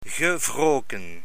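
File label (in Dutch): "gewroken", Dutch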